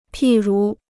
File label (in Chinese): "譬如", Chinese